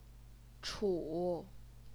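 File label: "chu", third tone, Chinese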